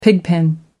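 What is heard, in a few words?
"pigpen", British English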